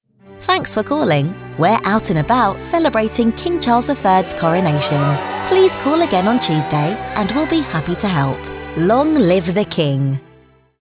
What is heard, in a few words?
playful with music; 8kHz 16bit; Kings Coronation; king charles iii's coronation